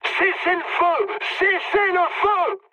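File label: player friendly fire, mood high, French; War Thunder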